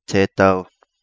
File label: Kapingamarangi; Tetau